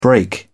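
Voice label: "break", British English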